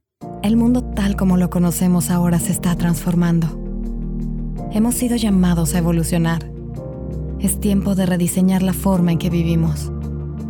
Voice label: Voice Explainer Promo Podcast music